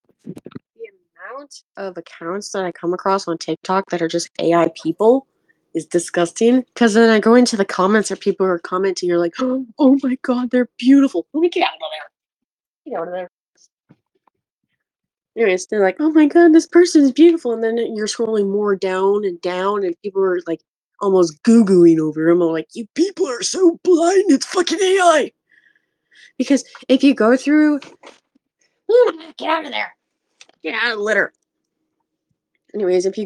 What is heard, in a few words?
voice message; Discord; Outcast Gaming